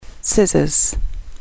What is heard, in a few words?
"scissors", English